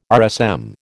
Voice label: "RSM", American